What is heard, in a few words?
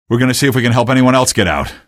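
citizen evac no, male; vo; npc; source engine; episodic; episode 1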